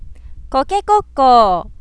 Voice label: chicken, Japanese